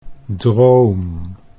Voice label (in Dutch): "droom", Dutch